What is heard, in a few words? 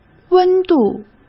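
"温度", Mandarin Chinese; chinese flashcards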